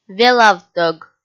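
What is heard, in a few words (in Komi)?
"велавтӧг", Komi, female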